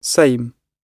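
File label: "same", British English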